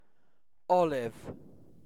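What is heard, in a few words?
"olive", British English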